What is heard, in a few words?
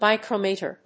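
"MICROMOTOR", English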